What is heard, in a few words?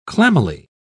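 "clammily", British English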